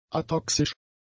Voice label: "atoxisch", German; adjectives